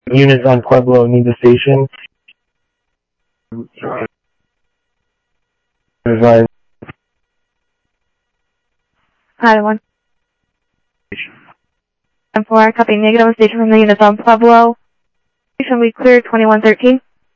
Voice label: South Scottsdale Sheriff, scanner audio

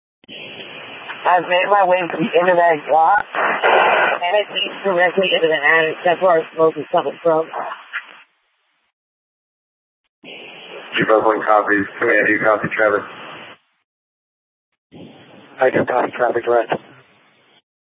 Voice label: FD Departments VHF 154.800 Patch, scanner; Mesa - Gilbert - AJ

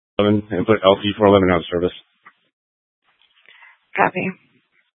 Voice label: Fire Departments, scanner

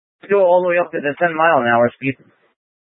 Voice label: Light Rail Emergency, scanner

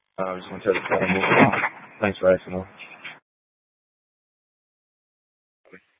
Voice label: Dispatch, scanner

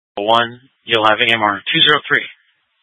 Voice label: Fire Departments Mesa Simulcast; TOPAZ

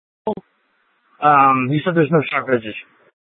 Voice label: Light Rail Ops, scanner

